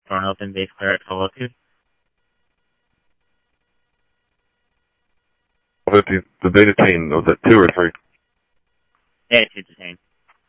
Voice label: Tempe Police, scanner audio; SimulcastF-Tempe; TEMPE PD A04